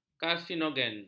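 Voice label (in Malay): "karsinogen", Malay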